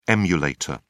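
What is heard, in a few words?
"emulator", British English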